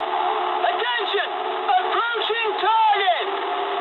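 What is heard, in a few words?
English near waypoint mood medium; speech; War Thunder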